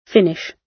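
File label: "finish", British English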